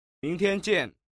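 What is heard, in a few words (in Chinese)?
ming tian jian, Chinese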